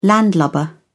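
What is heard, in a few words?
"landlubber", British English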